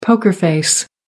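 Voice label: "poker face", British English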